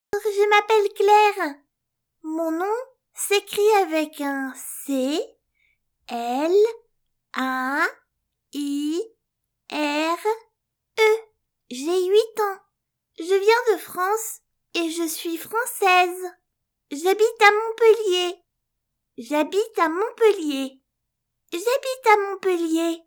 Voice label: French, Female

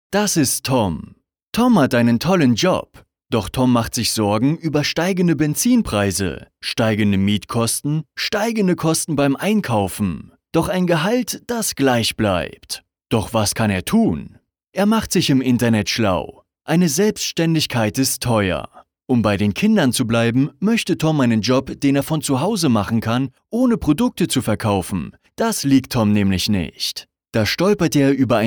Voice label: German, Male

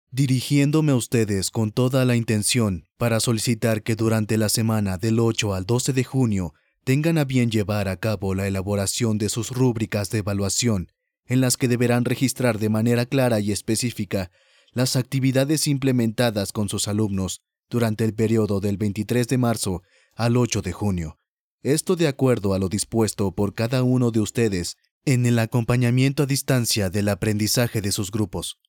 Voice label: Spanish (LA), Male

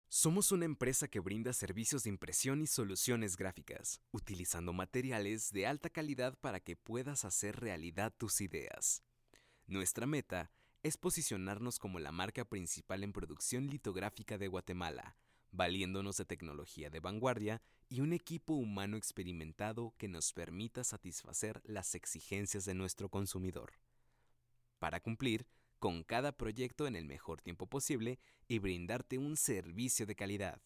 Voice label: Spanish (LA), Male

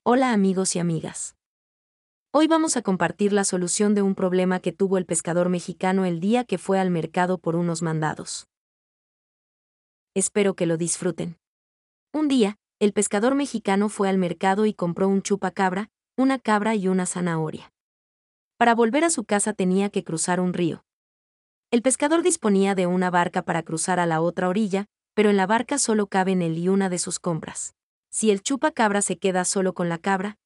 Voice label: Spanish (LA), Female

